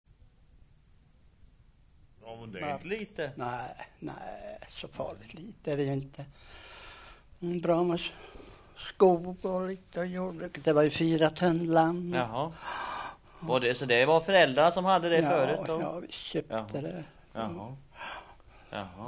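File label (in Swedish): Västra Ryd Östergötland, Svenska sagor, dialekter; Folkminnen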